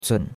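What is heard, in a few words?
"cun3", Chinese; Cours-de-Chinois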